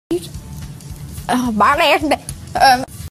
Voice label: jamaican accent fail; funniest accent challenge ever